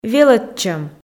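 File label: "велӧдчӧм", Komi, female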